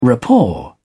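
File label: "rapport", British English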